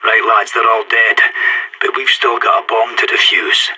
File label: no enemies left bomb, player vo sas